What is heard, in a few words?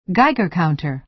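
"geiger counter", American English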